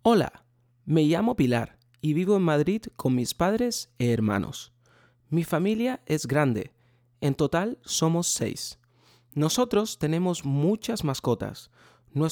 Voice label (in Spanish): ESP